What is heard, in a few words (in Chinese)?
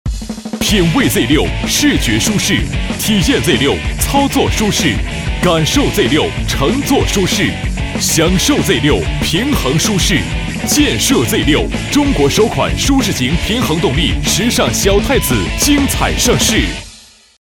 男 广告 摩托 舒适, 国语男声配音 大气